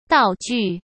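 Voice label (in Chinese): "道具", Mandarin Chinese; hsk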